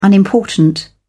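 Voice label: "unimportant", British English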